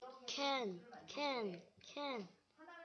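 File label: "can", spoken word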